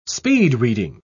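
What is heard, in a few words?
"speed-reading", English, British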